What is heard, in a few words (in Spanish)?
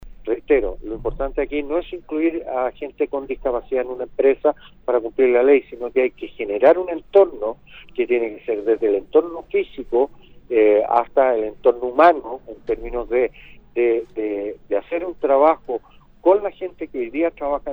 reportaje; Undurraga